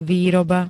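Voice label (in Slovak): "výroba", Slovak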